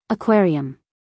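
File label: "aquarium", American English, female; everyone-can-use-english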